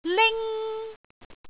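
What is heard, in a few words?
"ling1", Cantonese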